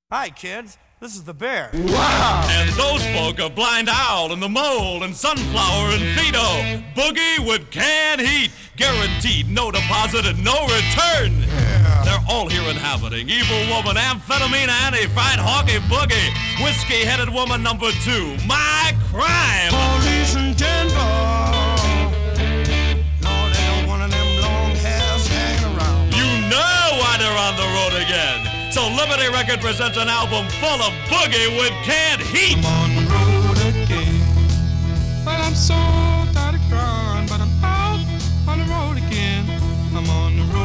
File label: Radio Commercials; 1968